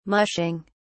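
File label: "mushing", British English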